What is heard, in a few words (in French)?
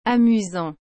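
"amusant", French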